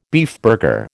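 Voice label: "beefburger", American English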